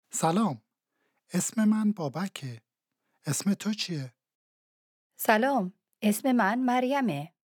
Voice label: Dialogue lesson Farsi